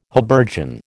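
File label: "habergeon", American English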